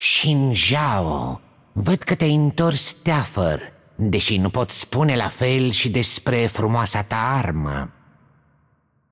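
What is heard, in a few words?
voice over, Romanian; Kennen; game outro; bloom minigame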